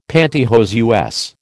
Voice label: "panty hose" US, American